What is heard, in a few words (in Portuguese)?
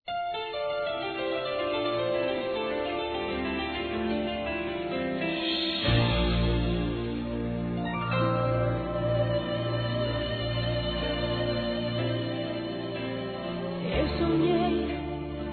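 ESPOSA, male, Telemensagens Dia das Mães; Masc; Telemensagens 2017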